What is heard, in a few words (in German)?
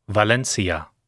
deutsch; valencia